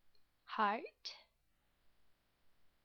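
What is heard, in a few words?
"heart", English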